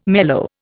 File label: "mellow", Indian